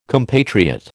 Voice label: "compatriot", American